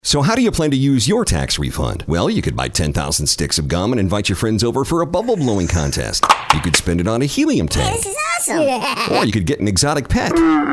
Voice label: Radio Spot; Power Systems Computers